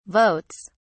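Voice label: "votes", British English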